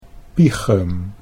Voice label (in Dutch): "piegem", Dutch